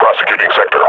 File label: firing, combine grunt; Half Life Model Pack